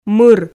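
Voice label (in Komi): "мыр", Komi, female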